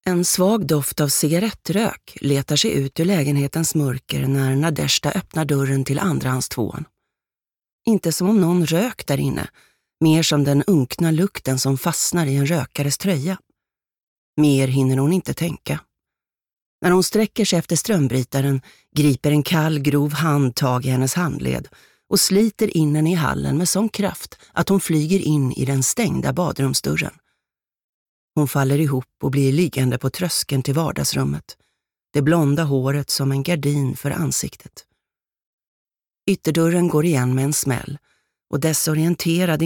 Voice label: SWE Audiobook f